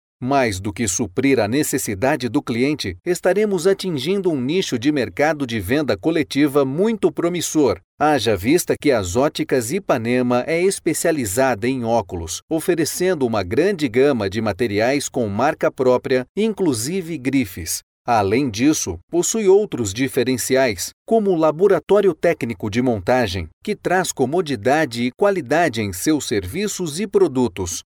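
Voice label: Brazilian elearning; portugisisk-brasil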